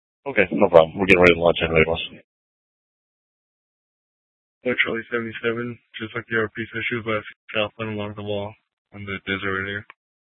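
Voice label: Police Department Dispatch, scanner; Mesa PD; 4 Superstition Dispatch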